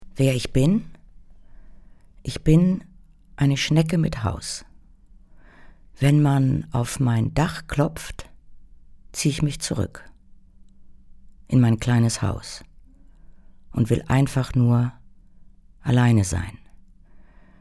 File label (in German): Gedankenstimme; Schnecke im Haus; LOFT VOICES